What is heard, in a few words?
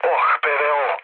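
Serbian damaged aa mood low, speech; War Thunder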